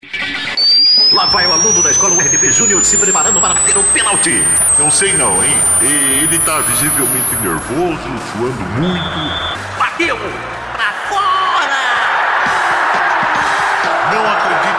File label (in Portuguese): comercial; gol da qualificaaao